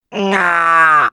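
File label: Defective Turret defect fail, Spanish; sp sabotage factory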